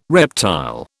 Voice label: "reptile", British